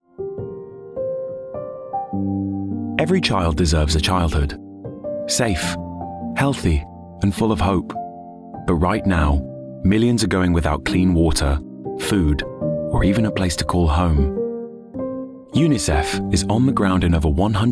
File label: COMMERCIAL; UNICEF